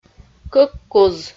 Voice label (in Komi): "кӧк куз" Komi